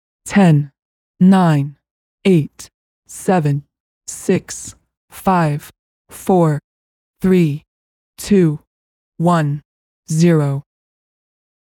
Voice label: Slightly Computery Female Countdown From 10 To Zero